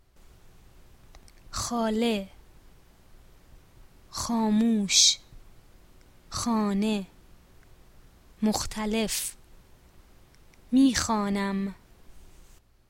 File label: pronunciation, Persian